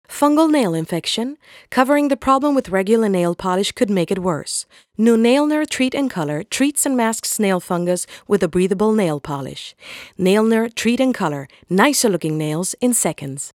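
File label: commercial English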